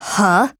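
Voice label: MOOD, Japanese; Hunter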